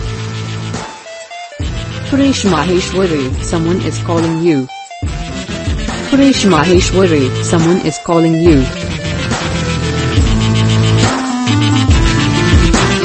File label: Name Ringtone with Music